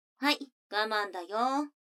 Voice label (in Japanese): 女の子 台詞, ASMR